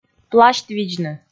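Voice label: "пласьтвидзны", Komi, female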